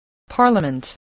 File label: "parliament", English, voice